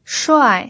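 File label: "shuai", Mandarin Chinese pinyin, fourth tone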